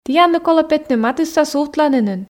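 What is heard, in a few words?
"тіянлы колӧ петны матысса сувтланінын", Komi, female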